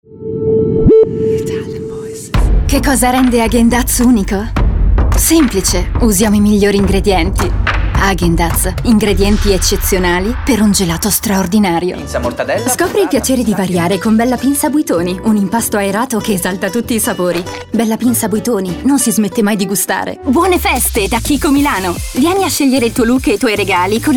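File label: ITALIAN VOICES Upbeat Commercials, female voices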